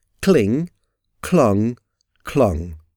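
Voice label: "cling clung clung", English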